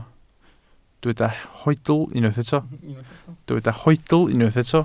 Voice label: "hoedl", Welsh

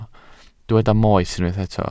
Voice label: "moes" Welsh

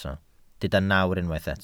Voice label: "nawr", Welsh

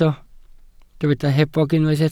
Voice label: "hebog", Welsh

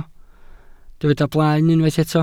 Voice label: "blaen", Welsh

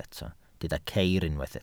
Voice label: "ceir", Welsh

